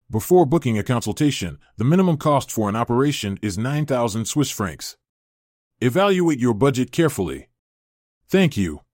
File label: Vocal pop up english